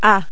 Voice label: "a", french